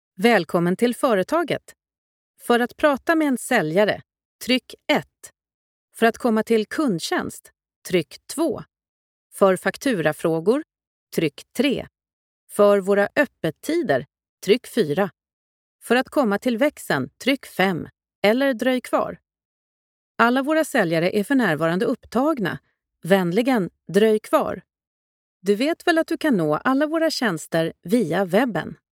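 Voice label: Swedish telephony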